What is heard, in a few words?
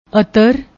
"ater", Punjabi; ਅਤਰ